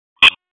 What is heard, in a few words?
PS TAC, p25; wake